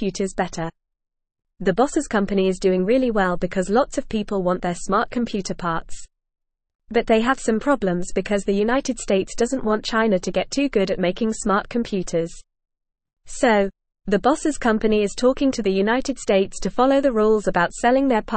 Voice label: English Lower Intermediate FAST Reading; Jensen Huangs Company Makes Smart Machines for Ever